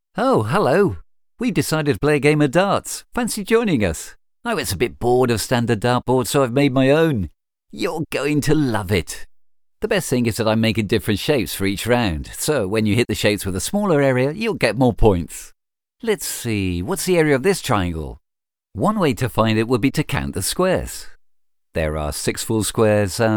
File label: E-LEARNING